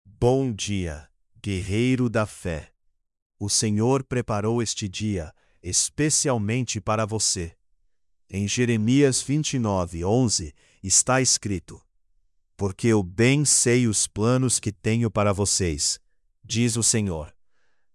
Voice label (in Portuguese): devocional manha